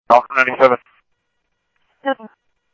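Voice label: Mesa Police Department, scanner audio; Mesa Simulcast; MESA PD 1 Fiesta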